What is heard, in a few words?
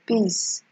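"piss", Nigerian Pidgin, female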